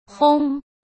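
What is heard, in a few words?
"轰", Mandarin Chinese; hsk; chinese-flashcards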